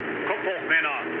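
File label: German near waypoint mood med, speech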